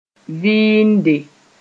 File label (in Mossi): "vɩɩnde", moore